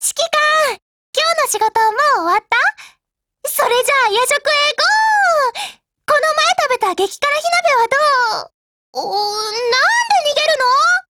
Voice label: JP; DIALOGUE